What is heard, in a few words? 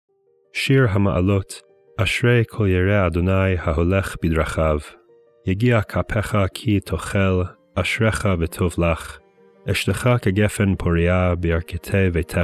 poetry and prayer psalm hebrew